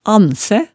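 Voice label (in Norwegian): "annsæ", Hemsedal dialect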